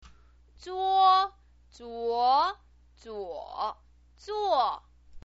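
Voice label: "zuo", Mandarin Chinese pinyin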